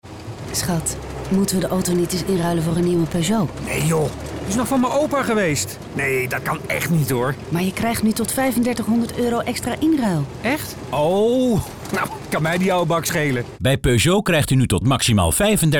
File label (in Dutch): voice in commercial; voor Peugeot